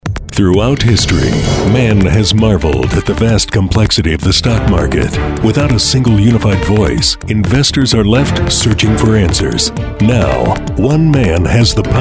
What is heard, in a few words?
Movie Trailer